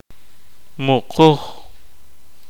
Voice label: "Grave", kaqchikel